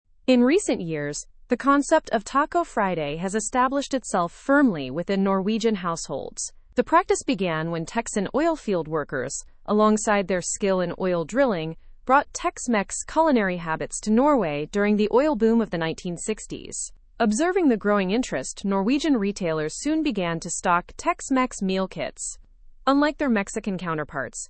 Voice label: learn english through news advanced fast; the norse transformation of tacos